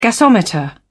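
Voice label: "gasometer", British English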